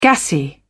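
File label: "gassy", British English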